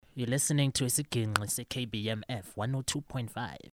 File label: Zulu Mokai Click; NEWSHOUR